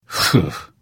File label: angry noise, voice; nord voice